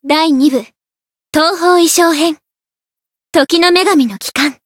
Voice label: title jp; veina